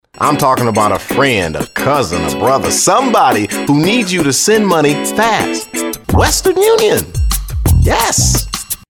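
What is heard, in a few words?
English US, male, commercial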